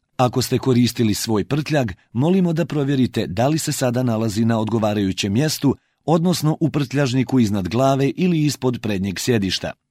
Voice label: male bosnian voice over narrative